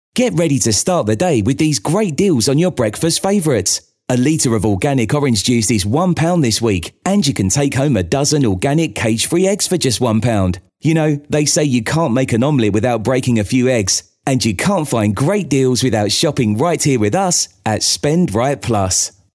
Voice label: Casual Conversational